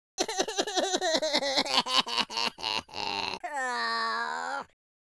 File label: sad, speech, Madchester